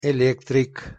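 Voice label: "elektrik", Tatar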